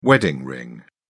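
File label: "wedding ring", British English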